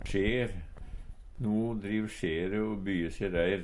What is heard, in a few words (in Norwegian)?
sjer, Numedalsmål; No driv sjere o byjje se reir.